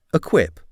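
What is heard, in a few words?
"equip", English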